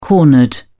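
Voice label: "cornered", British English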